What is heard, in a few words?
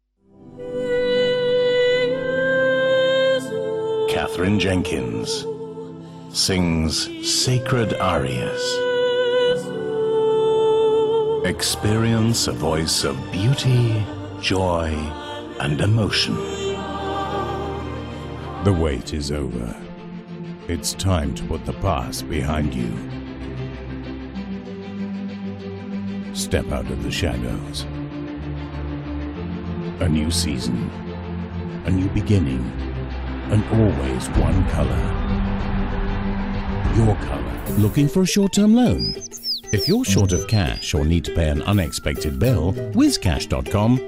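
Commercials Straight Reads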